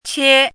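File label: "qie1", Mandarin Chinese syllable, pinyin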